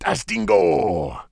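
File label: Headhunter warcry, English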